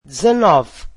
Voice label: "nineteen", Portuguese